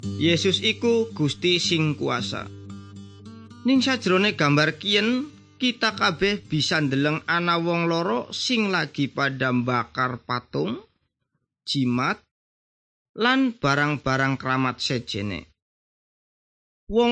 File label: good news jawa indramayu, javanese bible